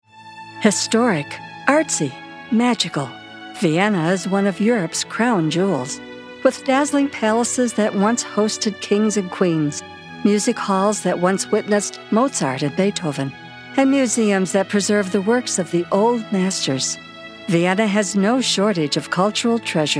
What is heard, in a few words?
Narration